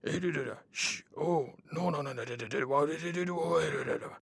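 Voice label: whisper; reaperman; giants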